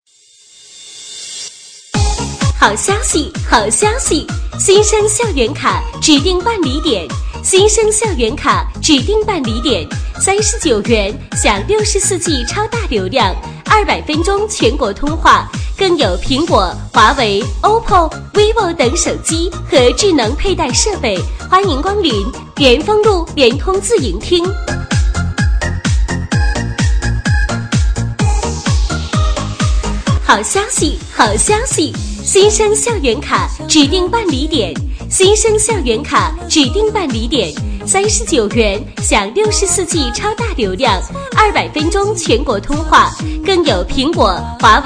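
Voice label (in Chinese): 女促销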